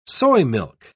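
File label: "soymilk", English, British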